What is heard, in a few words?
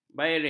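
"bearing", Malay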